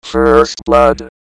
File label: first blood, robot; Announcer